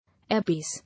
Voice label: "Air base", English